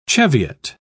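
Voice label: "cheviot", British English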